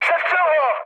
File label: attack position, speech cze, mood med; War Thunder